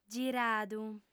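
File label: "giradu", female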